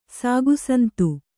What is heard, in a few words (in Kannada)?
"ಸಾಗುಸಂತು", kannada